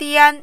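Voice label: "dian", first tone, Mandarin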